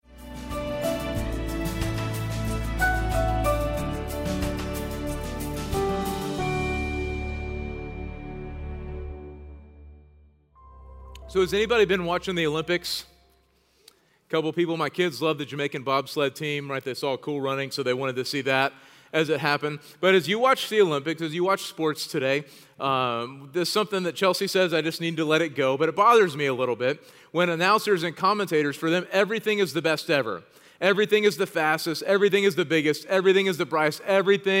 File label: Sermon English